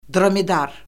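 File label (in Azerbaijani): "dromedar", Azerbaijani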